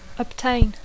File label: "obtain", English